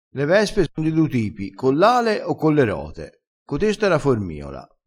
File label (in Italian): "formiola", vocabolario dialettale